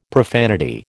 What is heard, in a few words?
"profanity", American